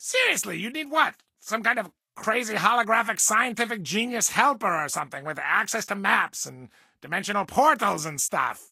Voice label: English Voice; Disney; Phineas and Ferb; The Transportinators of Doooom